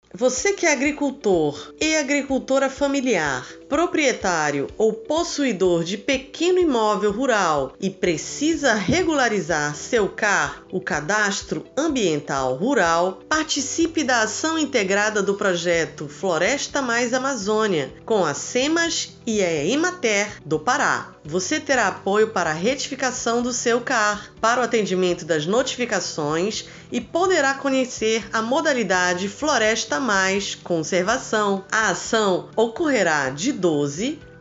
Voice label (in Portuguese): spot radio; Porto de Moz